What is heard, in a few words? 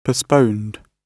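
"postponed", British English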